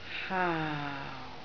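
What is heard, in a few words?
"haau4", Cantonese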